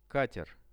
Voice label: "kater", Russian; катер; transport; RUSSIAN VISUAL DICTIONARY